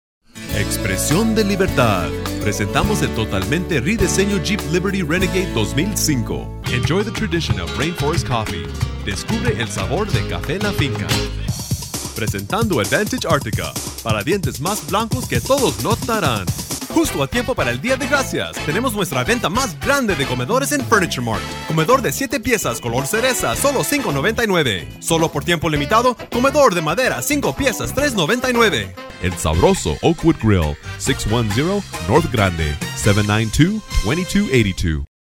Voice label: spanish males

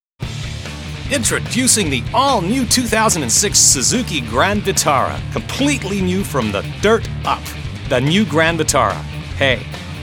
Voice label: English, english males

